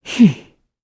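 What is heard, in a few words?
Vox Deny, Korean; Glenwys; kingsraid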